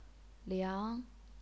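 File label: "liang", Chinese, second tone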